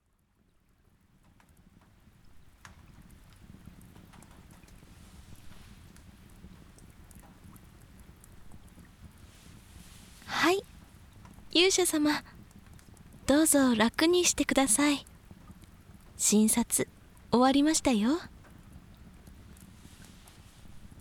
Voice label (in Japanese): 雨降りバージョン, ASMR; 包茎勇者と診療所の娘の献身治療